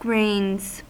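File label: "grains", American English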